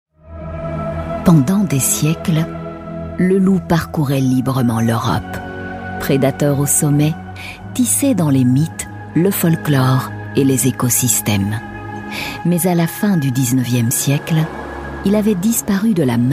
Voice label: french belgian female elearning, Belgian French Voice